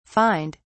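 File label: "fined", British English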